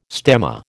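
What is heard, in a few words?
"stemma", American English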